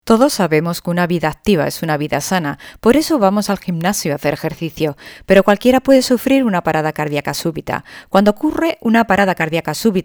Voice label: Spanish corporate videos